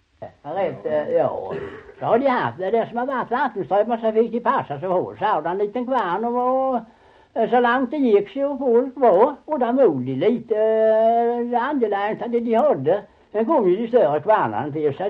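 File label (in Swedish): Ramdala Blekinge, dialekter svenska sagor; Folkminnen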